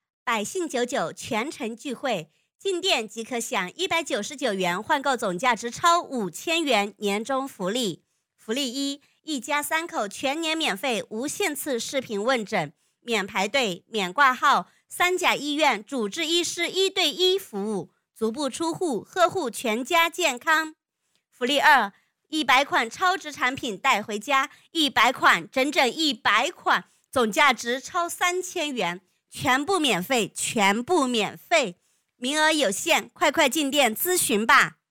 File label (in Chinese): 促销广告